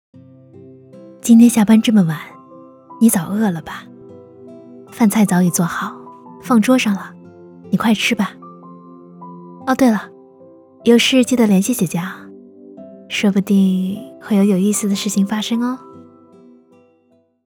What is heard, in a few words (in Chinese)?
影视广播剧温馨少御